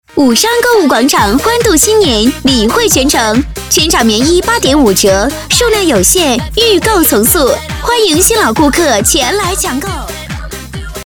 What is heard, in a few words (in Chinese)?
【激情促销】购物广场